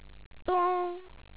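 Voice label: "do1ngr", Chinese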